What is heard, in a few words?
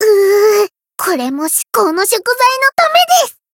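encounter fear, Japanese; loveli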